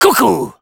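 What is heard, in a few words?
Spy taunts, French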